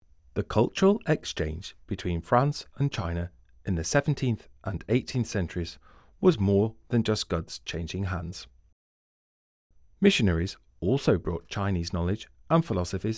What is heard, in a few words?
English, audio guide